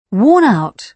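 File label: "worn out", British English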